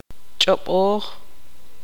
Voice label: tzutujil; pensar

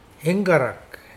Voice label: lice, Mokilese

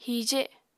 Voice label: "hije", Apatani

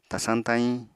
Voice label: "tasañ-tayiñ", apatani

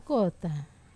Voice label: "kota", Wamesa

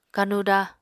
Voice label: "kanuda", Apatani

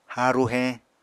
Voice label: "haaru heñ", Apatani

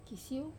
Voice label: "kasiou", wamesa

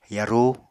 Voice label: "yarú", Apatani

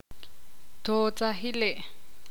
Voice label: ixil; saber

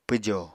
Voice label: "pwjo", Apatani

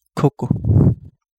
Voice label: Kapingamarangi; painted sweetlips

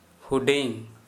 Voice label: Kera Mundari M small

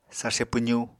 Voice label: "sarse pwzu", Apatani

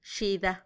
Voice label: "xida", pinecone, macuiltianguis; pinon

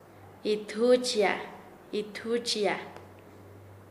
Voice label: Nasa Yuwe; ahorcarse; paez

